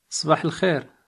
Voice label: good morning, arabic